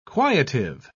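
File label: "quietive", British English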